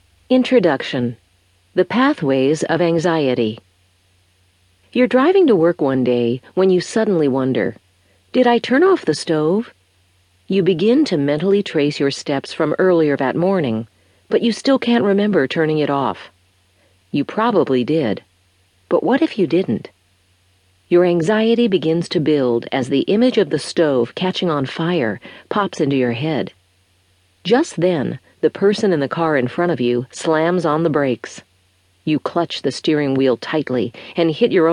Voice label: book on tape; Rewiring the Anxious Brain